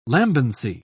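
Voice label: "lambency", British English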